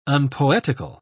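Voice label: "unpoetical", British English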